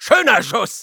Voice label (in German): Soldier nice shot, de; "Schöner Schuss!"